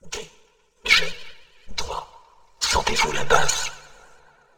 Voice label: Shh Feel The Bass French